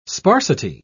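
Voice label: "sparsity", British English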